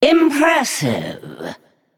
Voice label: Female Naga Well Played